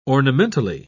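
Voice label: "ornamentally", British English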